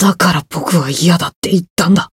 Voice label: Voice retire, jp